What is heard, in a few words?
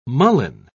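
"mullein", British English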